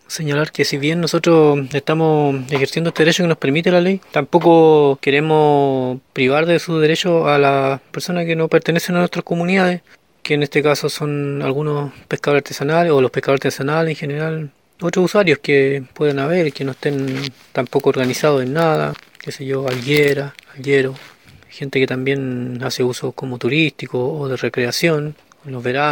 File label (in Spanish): Cuña